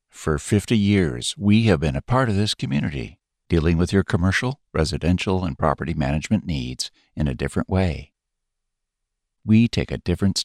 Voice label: US male; lektoring